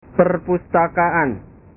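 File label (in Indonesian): "perpustakaan", Indonesian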